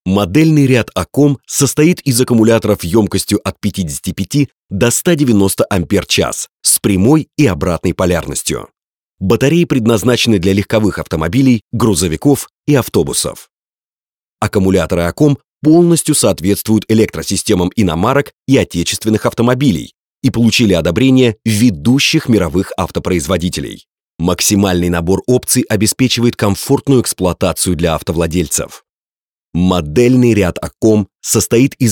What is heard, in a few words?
russian male